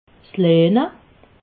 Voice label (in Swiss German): "lehne", alsace